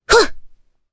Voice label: Attack, jp; Requina; kingsraid; voices heroes